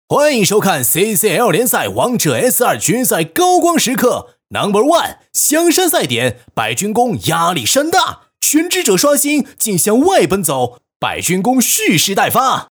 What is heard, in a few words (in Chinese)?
男 信息流; 欢迎收看CCL联